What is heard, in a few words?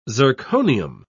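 "zirconium", British English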